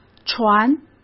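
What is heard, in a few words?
"船", Mandarin Chinese; hsk; chinese flashcards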